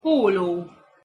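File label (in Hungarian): "polo" Hungarian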